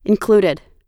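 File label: "included", American English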